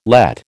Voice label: "Lett", American